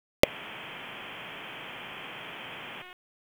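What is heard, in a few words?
Raleigh Police Northeast District Dispatch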